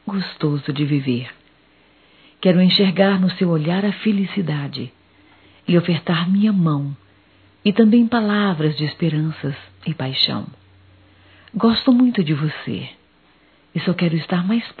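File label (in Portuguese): Natal romantico, feminino; Telemensagens 2017; Natal & Ano Novo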